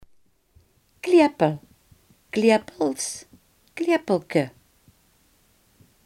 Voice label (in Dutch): "klepel", Limburgs